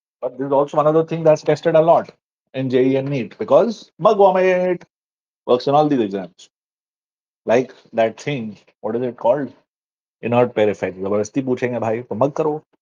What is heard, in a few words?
voice message